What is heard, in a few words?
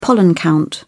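"pollen count", British English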